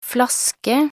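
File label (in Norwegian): "flaske", Norwegian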